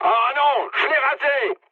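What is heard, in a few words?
bomb failure, mood high, French; War Thunder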